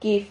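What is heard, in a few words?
"quif", Spanish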